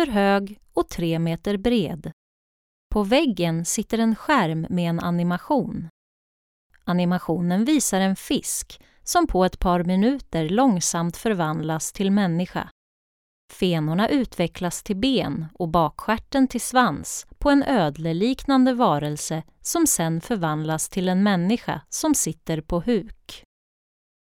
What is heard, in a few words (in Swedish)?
syntolkning; Station 1